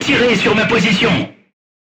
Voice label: voice message art command oneself, crew tanks french